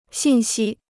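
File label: "信息", Chinese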